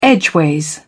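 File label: "edgeways", British English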